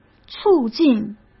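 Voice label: "促进", Mandarin Chinese; hsk; chinese-flashcards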